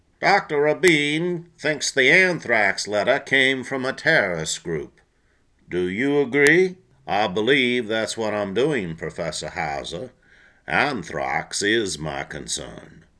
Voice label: CHARACTER VOICE